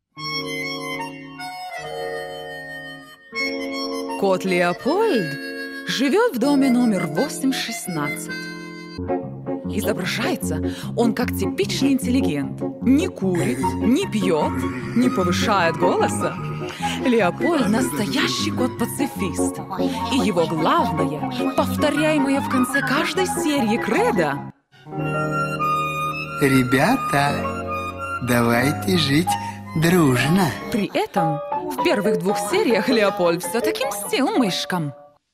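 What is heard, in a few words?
Russian Commercial; GoLocalise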